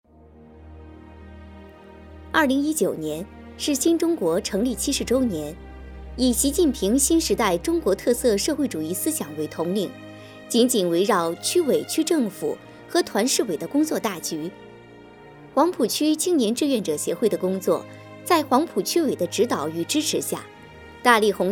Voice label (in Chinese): 宣传片清雅